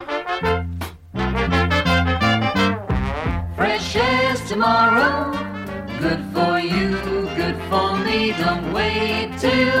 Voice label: Radio Advert, Cakes Of All Sorts; Radio Hallam; Fletchers